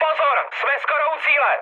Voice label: near waypoint, speech cze, mood high; War Thunder